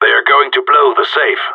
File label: bomb planted, radio; GSG9